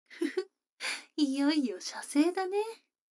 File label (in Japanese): 女の子 台詞